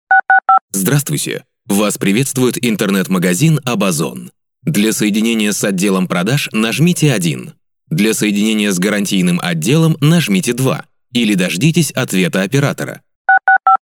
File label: man